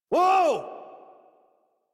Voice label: Horse Stop Adult; Warframe; Duviri